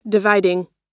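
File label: "dividing", American English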